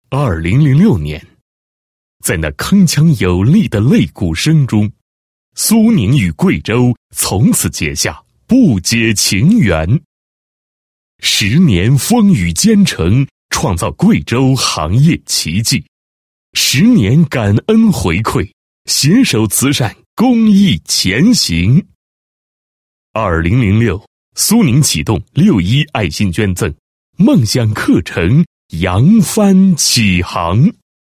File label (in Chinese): 男 年会 年会宣传片, 国语男声配音